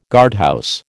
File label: "guardhouse", American